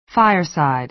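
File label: "fireside", American English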